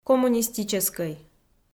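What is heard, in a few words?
"коммунистическӧй", Komi, female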